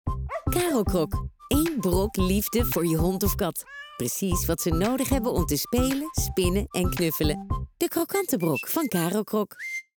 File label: Radio Mix; roCroc 2025; HOOFDSPOT